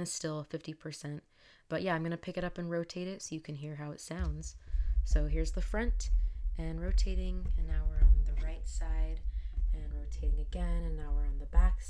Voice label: Roccat Torch whisper speaking microphone sample

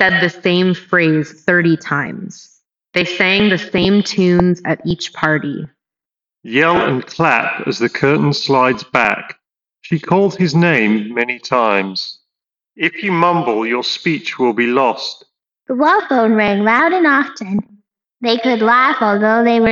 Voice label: reverb; CMF BUDS 2 PLUS

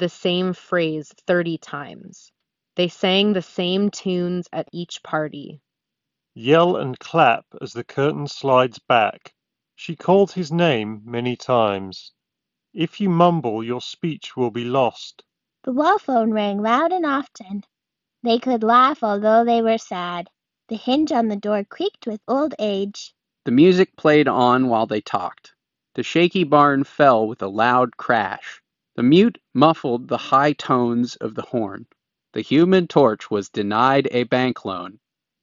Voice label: Ideal microphone sample; bowers wilkins px7 s2e

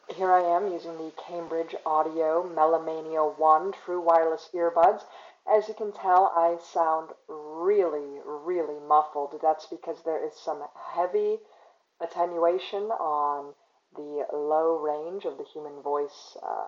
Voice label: Cambridge Audio Melomania 1 microphone

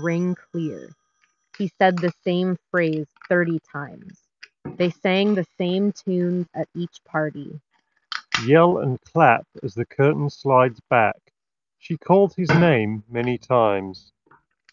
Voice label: Office microphone sample; House of Marley Positive Vibrations XL BT

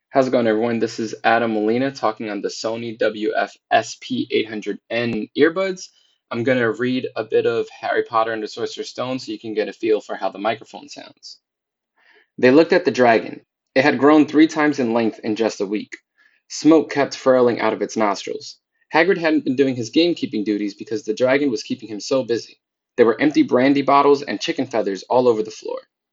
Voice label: Sony WF SP800N microphone

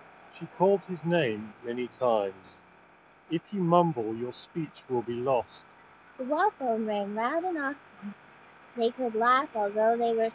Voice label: JBL Tune 660NC Wireless Street microphone sample

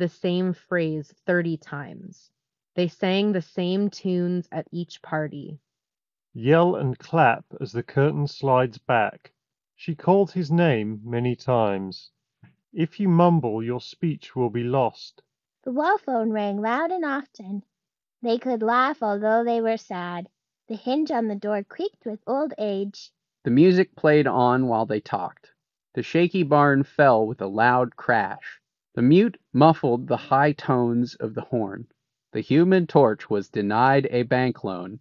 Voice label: Ideal microphone sample; Moondrop Space Travel

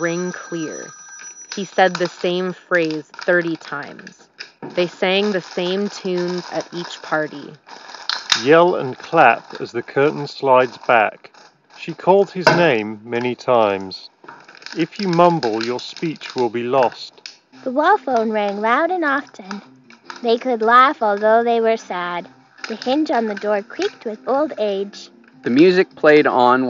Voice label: Edifier Stax Spirit S3 Office microphone sample

